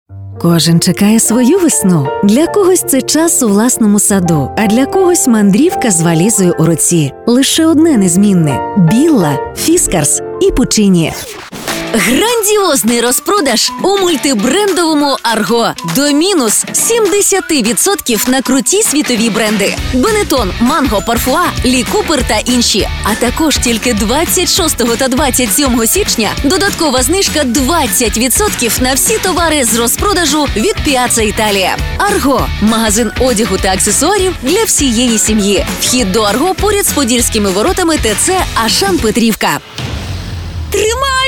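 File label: Ukrainian, female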